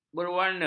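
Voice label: "berwarna", Malay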